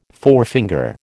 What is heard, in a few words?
"forefinger", American